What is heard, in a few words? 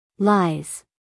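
"lies", American English, female; everyone-can-use-english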